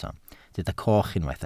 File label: "coch", Welsh